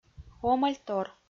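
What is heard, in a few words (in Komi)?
"омӧльтор", Komi, female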